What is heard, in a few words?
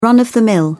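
"run of the mill", British English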